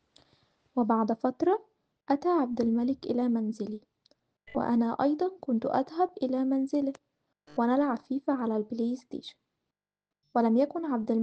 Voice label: Arabic